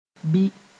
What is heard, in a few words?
"bi", mos; or